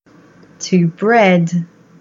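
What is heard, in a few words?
English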